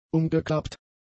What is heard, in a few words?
"umgeklappt", German; adjectives